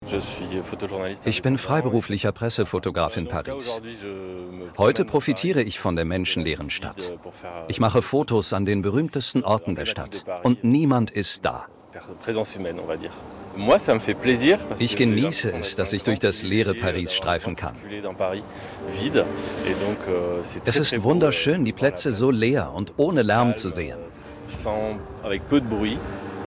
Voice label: Reportage O-Ton; Geisterstadt

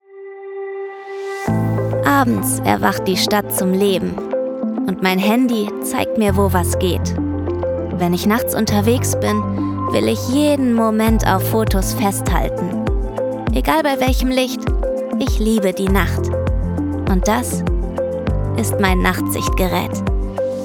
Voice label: Werbung Handy; LOFT VOICES

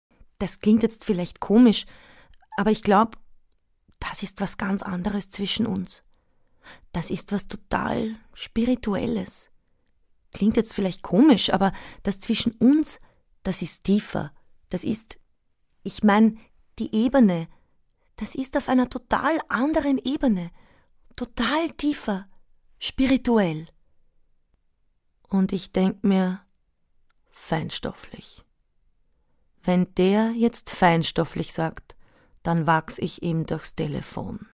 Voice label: Hörbuch Österreichisch; Hamlet ist tot; LOFT VOICES

